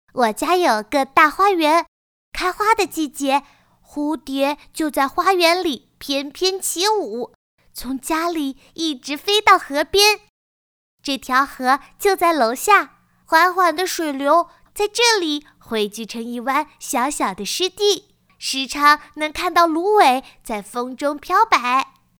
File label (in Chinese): 童声 童声专题